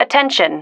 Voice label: "attention", female